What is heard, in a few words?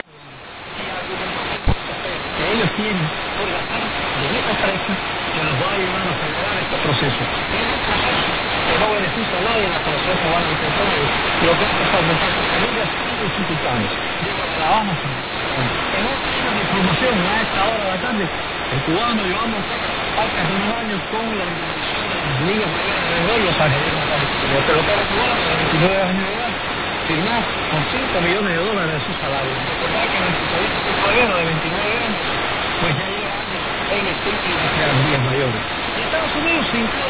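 Swahili AM; 13830,0; MTG; Radio Vaticana; Talata-Volondry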